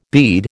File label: "bead", American